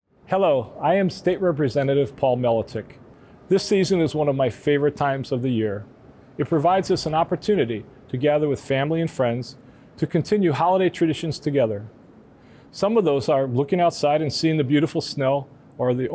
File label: Radio Address Christmas Message